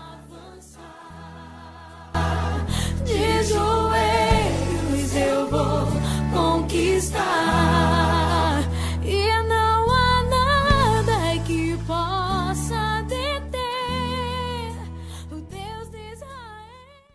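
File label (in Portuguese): Aniversário Evangélico para Irmão Masculino, telemensagem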